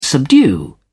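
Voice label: "subdue", British English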